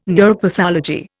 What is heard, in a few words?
"neuropathology", Indian